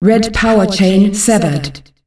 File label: Red powerchain severed, female announcer; Unreal Tournament; ut2004